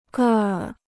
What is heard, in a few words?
"个儿", Chinese